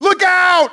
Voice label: "incoming", grunt; nimble grunt

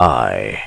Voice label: "i", word

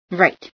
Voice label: "right", female

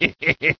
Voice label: cheer; voice commands

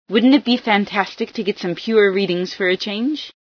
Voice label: pure readings, female

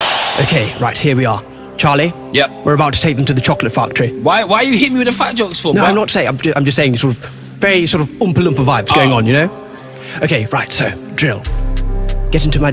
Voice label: british

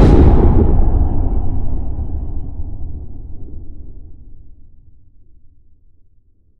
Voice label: controller psy hit, russian sounds

